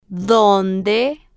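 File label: "donde", Spanish, female, slow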